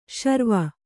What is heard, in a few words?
"ಸುರ್ವು", Kannada